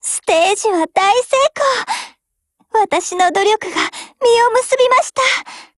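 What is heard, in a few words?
WIN Japanese, Voice; GF Alarm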